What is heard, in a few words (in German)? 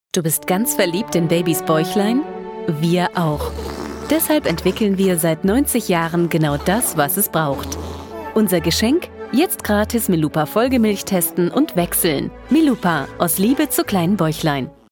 DE Werbung; Milupa